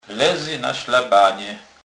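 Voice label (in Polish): "slaban", Kurpie dialect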